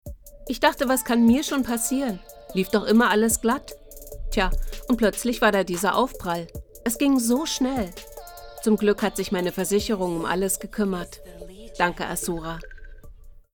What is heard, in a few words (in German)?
Werbung Versicherung; LOFT VOICES